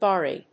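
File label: "Bari", English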